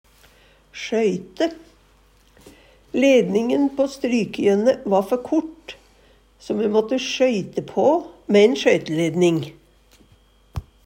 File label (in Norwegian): sjoyte, Numedalsmål